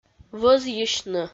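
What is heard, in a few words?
"вӧзйысьны", Komi, female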